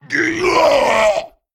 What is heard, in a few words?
Male Vrykul Death